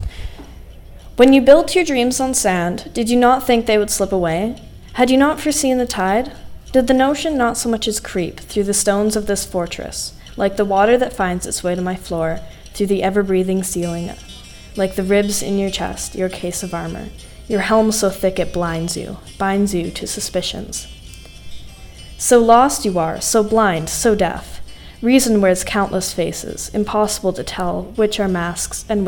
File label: podcast exemplar